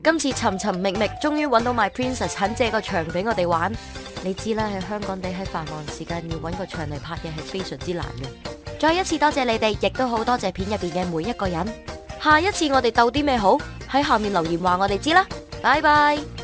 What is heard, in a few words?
cantonese